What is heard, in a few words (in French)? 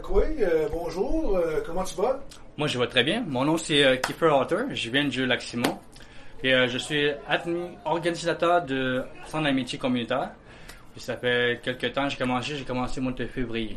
entrevue